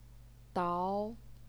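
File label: "dao", second tone, Chinese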